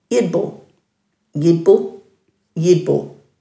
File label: "yidbu-", Dhurga; dulagaal